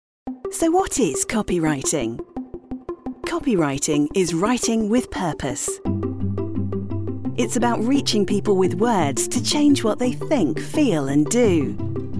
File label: english british explainer videos